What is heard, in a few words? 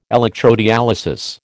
"electrodialysis", American